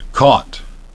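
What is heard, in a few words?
"caught", English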